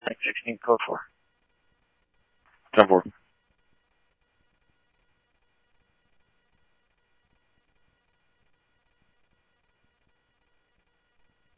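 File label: police department, scanner audio trunk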